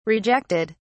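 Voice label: "rejected", British English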